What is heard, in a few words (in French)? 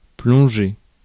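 "plonger", French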